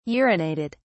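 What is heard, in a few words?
"urinated", British English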